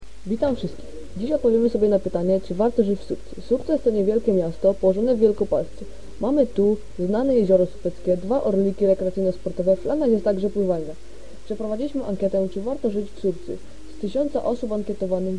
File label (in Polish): spot